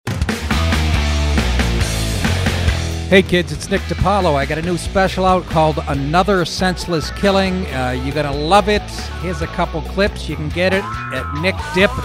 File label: Mixed Commercial